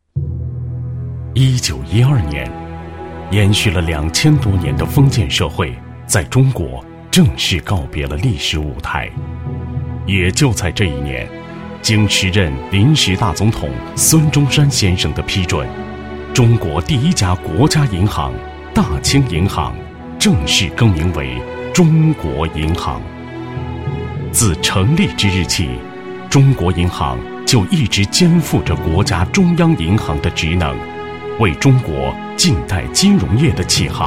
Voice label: 男25号 专题 叙事风格, 国语男声配音

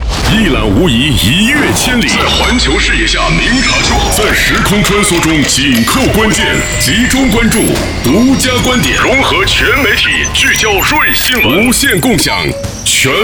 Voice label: 电台频宣 激情睿智; 广西新闻台

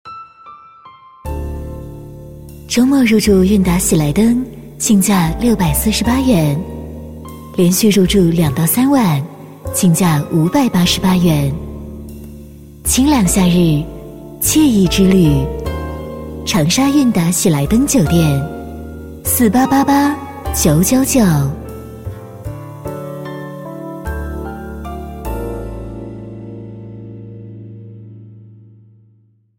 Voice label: 女 广告, 国语女声配音; 喜来登酒店

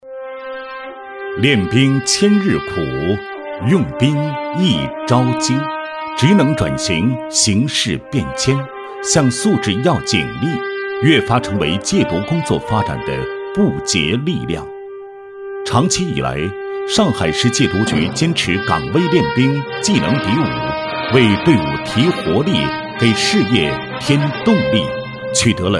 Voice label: 男, 公安; 上海市戒毒局建功“十三五”劳动竞赛

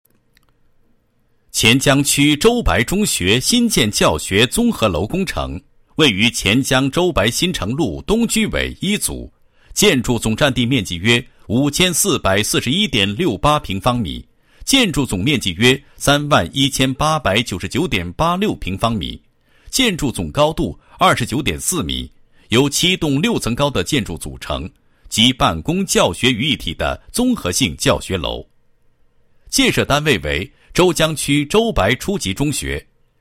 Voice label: 男 工程概况; 舟白中学新建教学综合楼

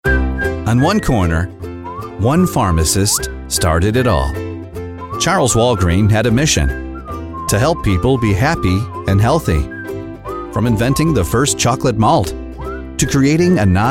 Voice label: 外籍男 商业广告 Commercial; Walgreens

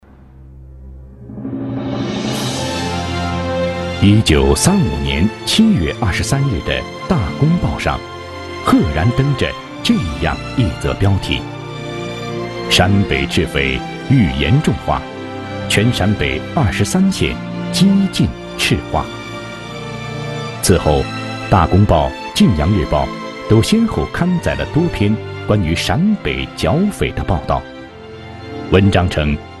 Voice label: 栏目解说; 人民英雄刘志丹; 第一集热血青年

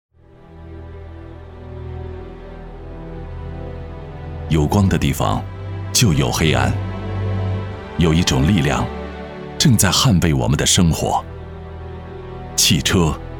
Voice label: 男 汽车广告 低沉磁性; 凯励程

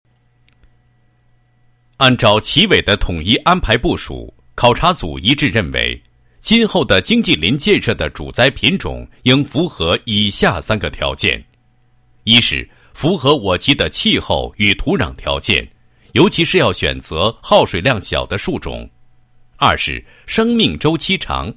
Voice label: 男 解说; 经济林建设 残次林更新改造

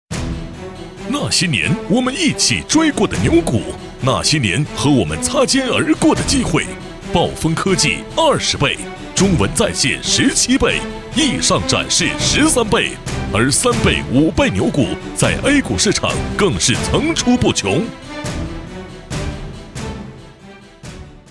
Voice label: 男60号 颁奖; 国语男声配音; 交广主播; 那些年股票

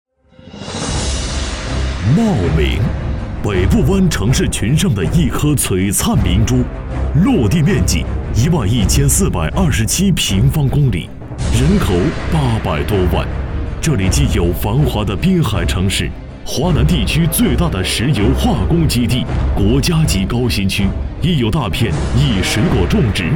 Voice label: 男-宣传片; 茂名

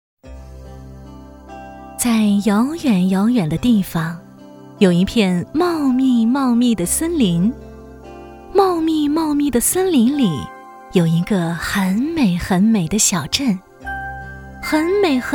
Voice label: 儿童读物, 故事

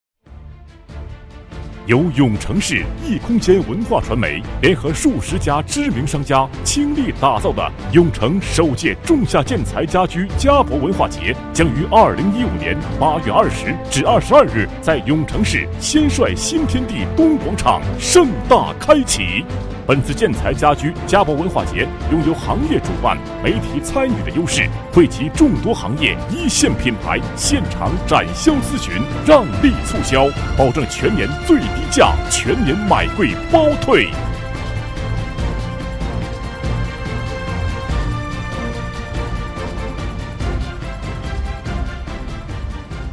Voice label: 男 广告, 国语男声配音 磁性; 家居佳博文化节

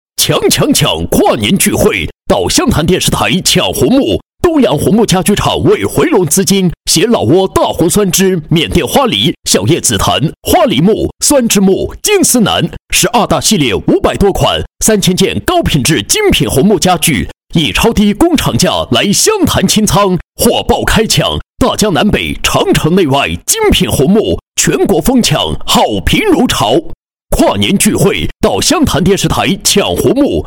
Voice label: 男 直销 配音; 红木家具

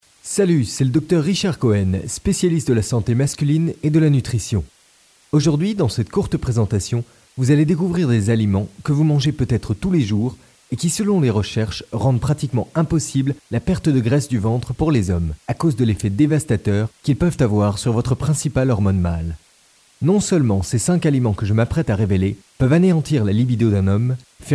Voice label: 外籍法语男 医学描述 medical presentation

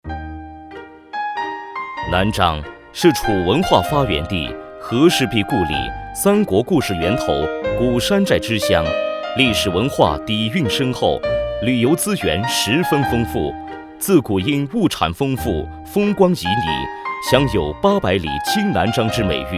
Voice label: 男 汇报片 生态农业; 南漳

